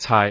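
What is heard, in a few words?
"caai6", Cantonese; microsoft Wan Lung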